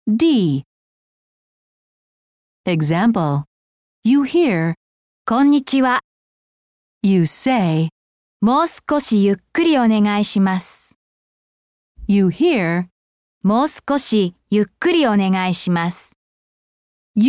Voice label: Hiragana Double Consonants, japanese